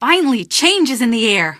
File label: question, female; Garry's Mod; hl2